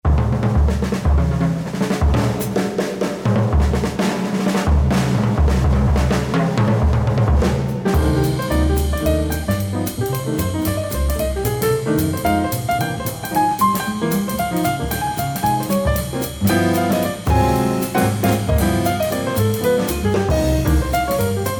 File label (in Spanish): POEMA - EL BLUS musica